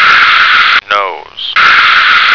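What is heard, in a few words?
"nose", American English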